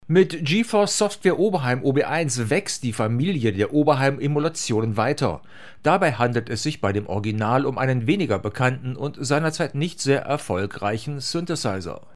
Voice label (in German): Rode Interview Pro onboard seitliches Besprechen